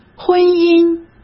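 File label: "婚姻", Mandarin Chinese; chinese flashcards; hsk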